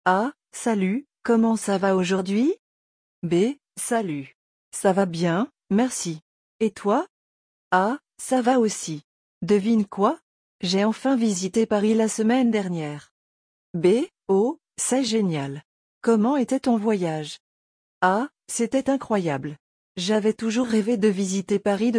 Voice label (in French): conversation; زمان گذشته کامل در فرانسه